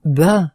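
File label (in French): "bain", French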